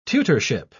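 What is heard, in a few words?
"tutorship", English, British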